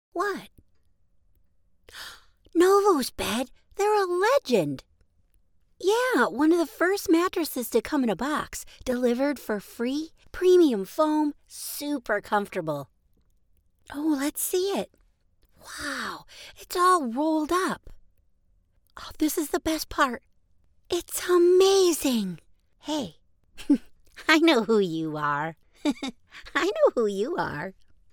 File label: cartoon voice